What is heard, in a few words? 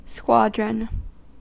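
"squadron", US English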